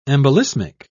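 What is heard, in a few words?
"embolismic", British English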